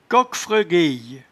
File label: "coquefregueye", patois jura